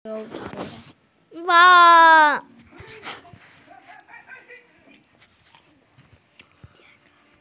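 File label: "weng1", Chinese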